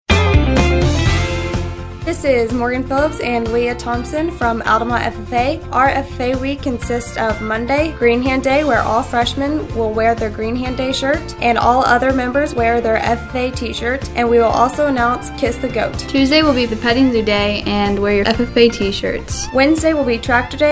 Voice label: female; Altamont FFA Week